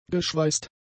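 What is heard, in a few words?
"geschweißt", German; adjectives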